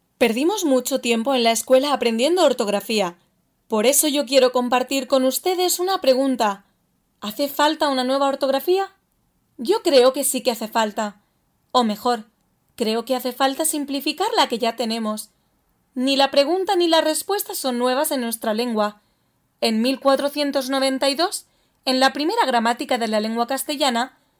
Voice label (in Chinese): 西班牙语女 外语 小语种